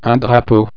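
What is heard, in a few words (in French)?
"drapeau", French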